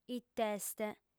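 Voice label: "it'est", female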